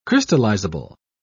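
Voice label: "crystallizable", British English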